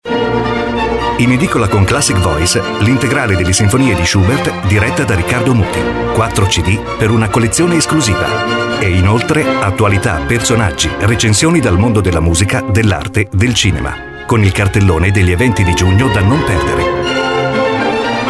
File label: spot classic voice; shubert